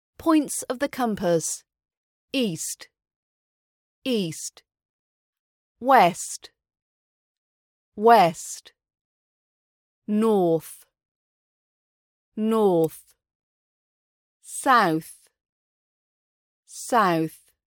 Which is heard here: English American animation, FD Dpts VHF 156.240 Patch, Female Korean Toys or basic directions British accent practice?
basic directions British accent practice